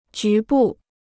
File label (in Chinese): "局部", Chinese